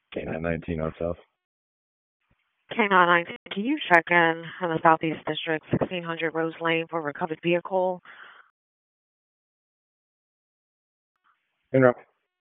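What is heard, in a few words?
Police Dispatch, p25; Raleigh Police Southeast District Disp; wake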